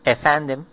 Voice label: hello, turkish